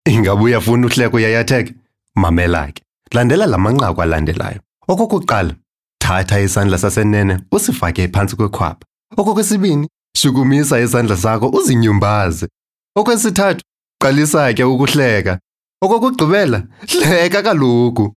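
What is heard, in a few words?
Xhosa, Instructional; GoLocalise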